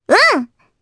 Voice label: Vox, jp; Requina; kingsraid